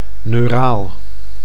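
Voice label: "neuraal", Dutch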